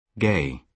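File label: "gay", British English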